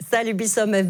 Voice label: hello, alsatian; Salü bisàmme; (Hello everyone)